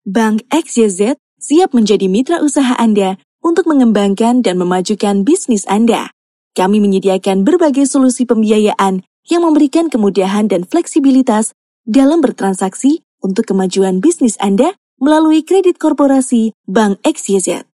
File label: Formal Indo, voiceover